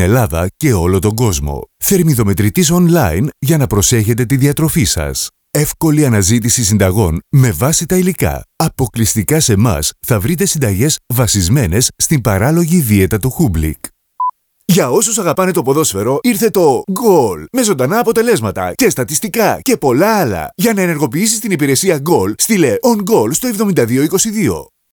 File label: Greek